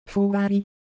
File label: Japanese, ideophones; Motion